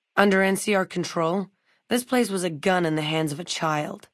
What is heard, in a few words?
female adult greeting; Fnv